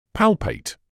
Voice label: "palpate", English